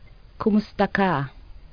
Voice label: HOW AR YOU, PILIPINO